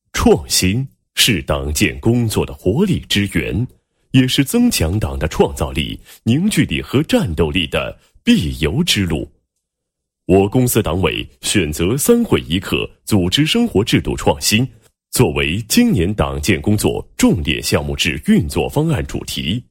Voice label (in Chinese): 男 企业党建 配音; 福建国寿党建工作